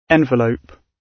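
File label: "envelope", British English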